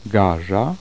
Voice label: polish, vowels

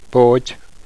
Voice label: gaelic, vowels

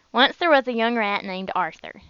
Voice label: southern

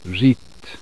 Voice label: swedish, vowels

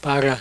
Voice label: melpa

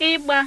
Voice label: igbo, vowels

